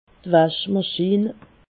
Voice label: "waschmaschin", alsace

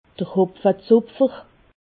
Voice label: "hopfezopfer", alsace

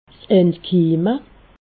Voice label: "entkimme", alsace

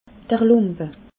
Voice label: "lumpe", alsace

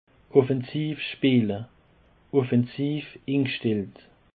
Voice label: "offensivspeele", Alsace

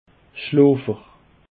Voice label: "schlofer", Alsace

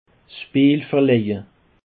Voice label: "speelverlaje", Alsace

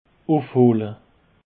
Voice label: "ufhole", Alsace

